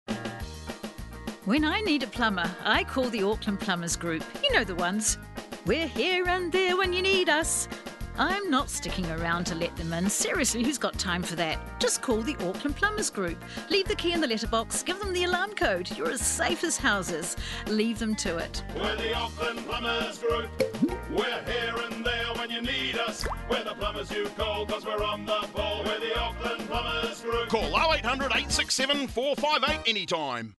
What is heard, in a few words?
female; Auckland Plumbers Group